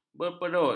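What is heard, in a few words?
"berperut", Malay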